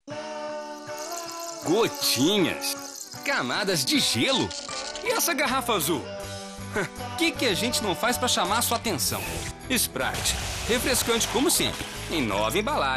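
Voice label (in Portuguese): Locução, Voz Jovem, Português - Brasileiro, Masculino; Locução para GAZIN - Consórcio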